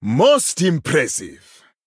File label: Male Troll Well Played; en